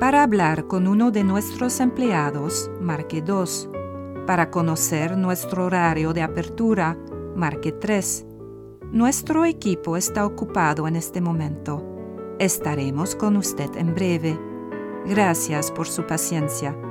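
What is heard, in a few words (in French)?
Museo avec musique, Spanish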